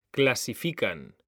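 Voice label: "CLASIFICAN", Spanish, male; mekanta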